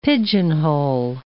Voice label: "pigeonhole", English

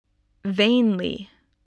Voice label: "vainly", English

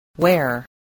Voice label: "wear", spelling

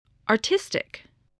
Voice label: "artistic", English

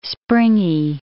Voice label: "springy", English

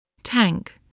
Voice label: "tank", English

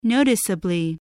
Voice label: "noticeably", voice, spelling

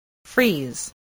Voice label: "freeze", English voice, spelling

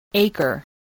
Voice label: "acre", English voice

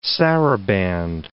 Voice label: "saraband", English

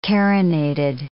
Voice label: "carinated", English

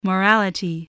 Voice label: "morality", voice, spelling

